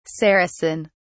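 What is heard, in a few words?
"saracen", British English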